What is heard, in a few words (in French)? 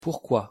"pourquoi", pronunciation fr